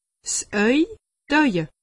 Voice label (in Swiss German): "au", alsace; medecine